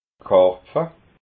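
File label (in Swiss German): "karpfa", Alsatian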